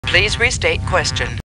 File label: Star Trek Computer Voice; StarTrekLCAR